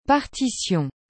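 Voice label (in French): "partition", French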